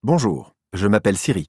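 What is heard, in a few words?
French; iOS Sounds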